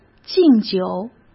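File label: "敬酒", Mandarin Chinese; hsk; chinese-flashcards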